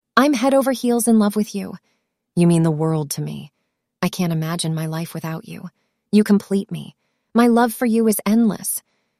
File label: synthesized audio